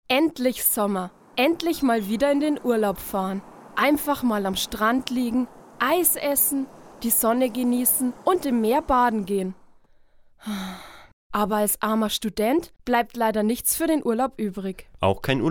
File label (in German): Werbung Sparkasse